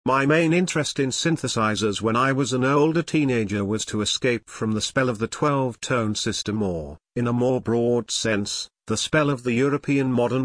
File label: test audio english